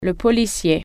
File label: "police officer", French